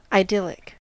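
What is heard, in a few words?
"idyllic", US English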